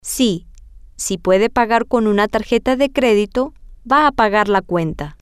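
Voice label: yesIfSheCAnPAyWithACreditCardSheIsGoingToPayTheBill, Spanish, female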